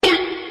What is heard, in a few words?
chinese do it